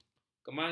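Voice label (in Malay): "kemangi", Malay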